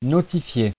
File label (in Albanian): "notifier", frengjisht